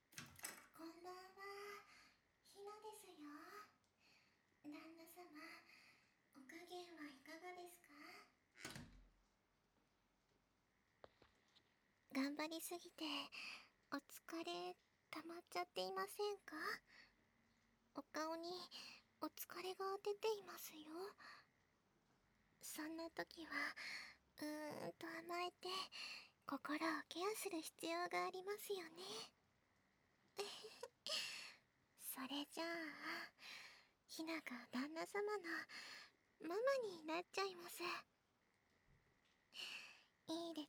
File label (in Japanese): でちゅまちゅ耳舐め手コキ; ひなたママ